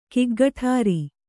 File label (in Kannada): "ಗುಗ್ಗುಟುರು", Kannada